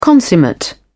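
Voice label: "consummate", British English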